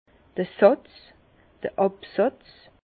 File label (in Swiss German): "satz", Bas Rhin; vin; dépot